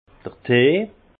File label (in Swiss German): "tee", Alsace; infusion; vin